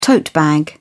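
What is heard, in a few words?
"tote bag", English, British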